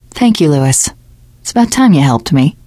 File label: thanks, teen girl; source engine; left 4 dead 2; survivor